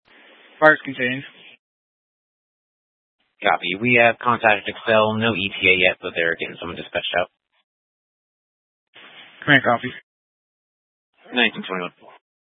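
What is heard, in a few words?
Incident Tactical 1, Scanner audio recording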